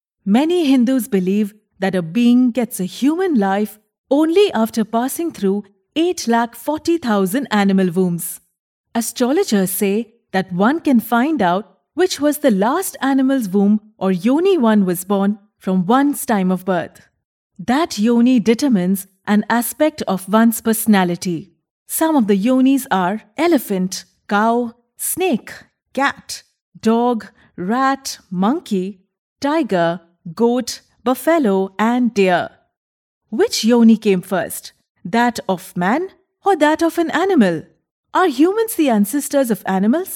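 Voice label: Documentary English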